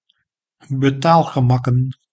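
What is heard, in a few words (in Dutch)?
"betaalgemakken", Dutch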